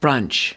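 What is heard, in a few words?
"brunch", US English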